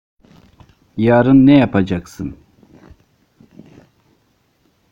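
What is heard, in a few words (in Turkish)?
"yarın ne yapacaksın", Turkish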